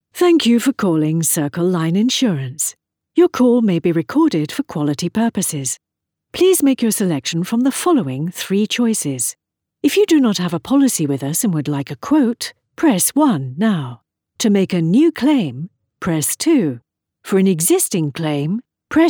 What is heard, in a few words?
IVR